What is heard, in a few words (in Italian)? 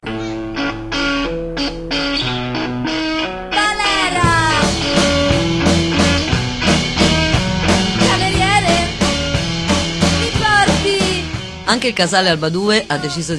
Spot